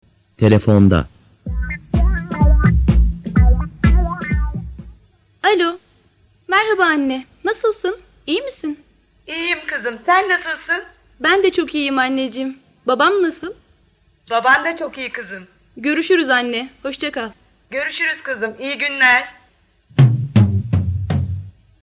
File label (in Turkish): diyalog